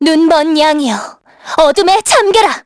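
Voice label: Vox Skill, Korean; kingsraid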